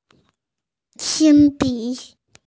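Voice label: "pencil", Mandarin, hearing-impaired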